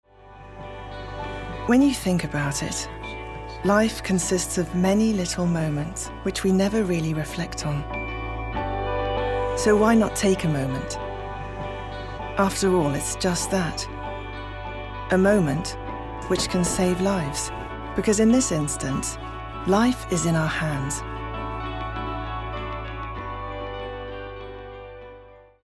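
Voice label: English; B Braun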